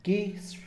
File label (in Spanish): "plano", Mixe, hombre; Espaciales